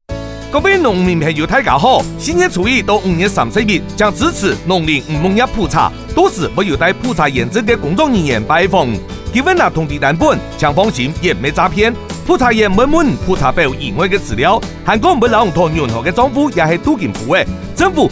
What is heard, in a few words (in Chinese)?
放心篇 客語版; 農林漁牧業普查